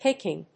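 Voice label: "picking", English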